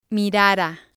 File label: "mirara", spanish